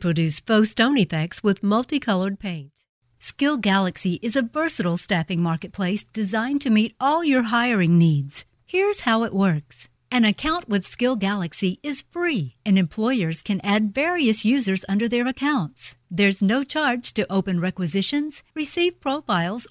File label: explainer video